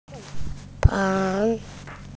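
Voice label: "tang2", Mandarin Chinese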